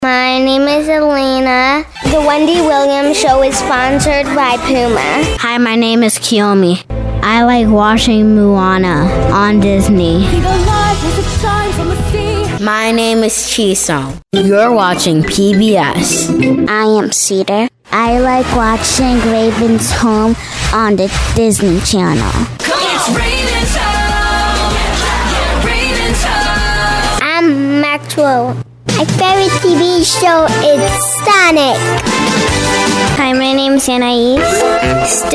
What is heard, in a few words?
Kids VO, Public school kids doing voice overs; Kids Speak Better When They Learn To Do Voice Overs; Kids VO Demo